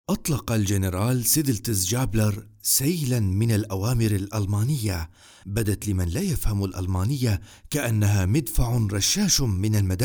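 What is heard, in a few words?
Syrian narration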